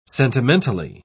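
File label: "sentimentally", British English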